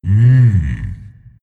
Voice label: happy; Zuus